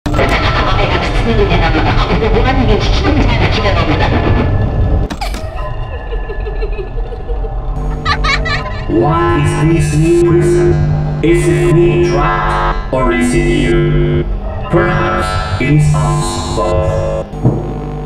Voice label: animatronic voice lines; fnaf 2 in real time